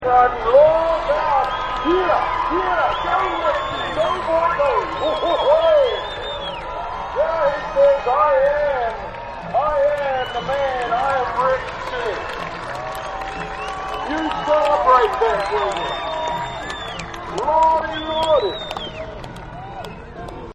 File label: Rodeo Announcer; Rodeo Announcer 2; Rodeo Sound Effects